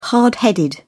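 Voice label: "hard headed", British English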